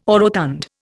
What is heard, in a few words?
"orotund", Indian